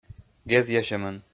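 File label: "гезъясьӧмӧн", Komi, male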